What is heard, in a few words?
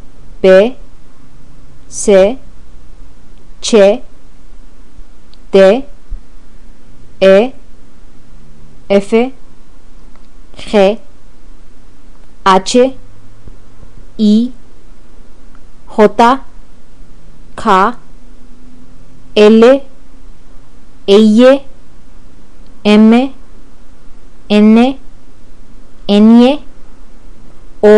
alphabet, Spanish